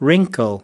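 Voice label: "wrinkle", US English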